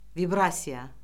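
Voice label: "vibrasiya", Azerbaijani; Orfoqrafiya Lüğəti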